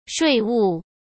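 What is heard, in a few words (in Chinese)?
"税务", Mandarin Chinese; chinese-flashcards; hsk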